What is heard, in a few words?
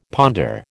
"ponder", American